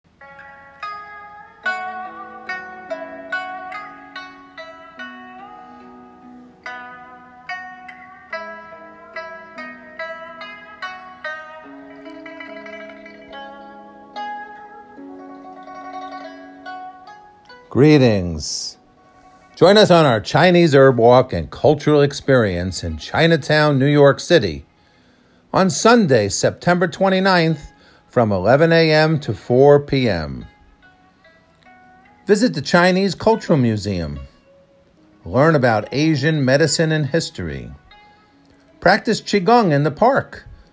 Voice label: PSA radio show; Chinatown; natural Nurse